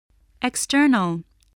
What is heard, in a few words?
"external", voice